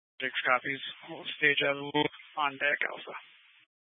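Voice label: Incident Tac 1, Scanner audio recording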